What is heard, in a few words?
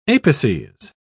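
"apices", British English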